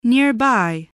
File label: "nearby", voice